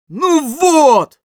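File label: jeers, Russian; Scout; "Ну вот!"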